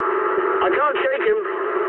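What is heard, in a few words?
English aircraft damaged mood med; War Thunder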